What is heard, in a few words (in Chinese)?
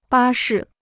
"巴士", Chinese